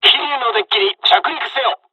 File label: land, dialogs japanese; second wave; War Thunder